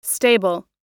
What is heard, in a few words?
"stable", oxford